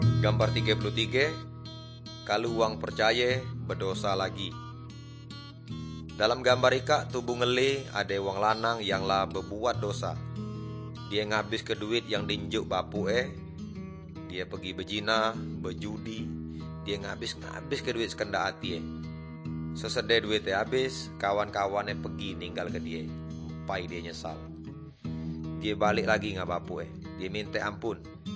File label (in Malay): good news melayu tanjung raja